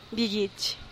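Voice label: "bigich", Teotitlán del Valle Zapotec; mosquito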